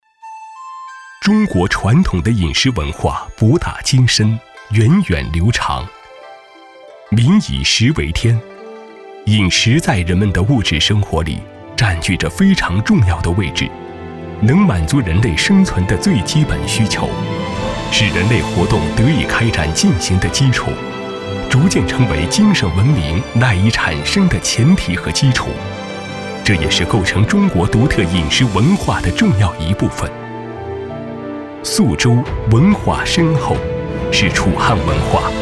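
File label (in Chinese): 男国大音-【记录舌尖专题】传承非物质文化遗产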